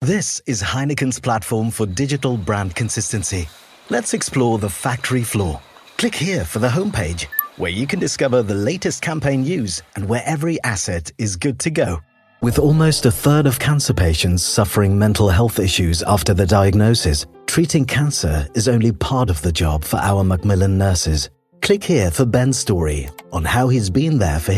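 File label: English UK, Corporate; GoLocalise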